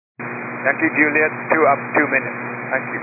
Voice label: 122 725MHz, scanner radio